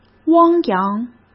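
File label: "汪洋", Mandarin Chinese; chinese flashcards; hsk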